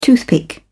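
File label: "toothpick", British English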